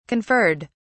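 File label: "conferred", British English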